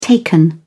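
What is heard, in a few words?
"taken", British English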